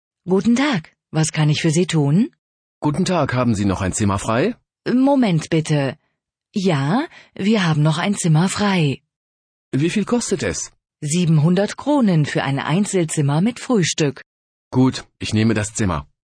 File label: dialog